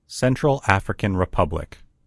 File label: "central african republic", en US; gcompris; geography